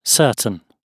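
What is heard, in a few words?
"certain", British English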